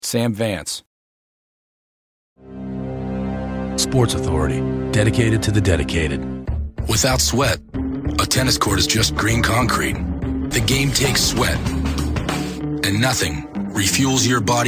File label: commercial; Innovative LA